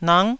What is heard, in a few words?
"nang9", Taiwanese